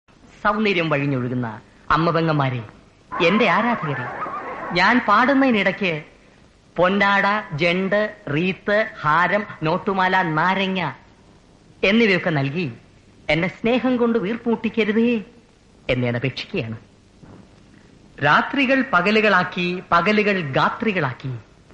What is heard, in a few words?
Malayalam dialogue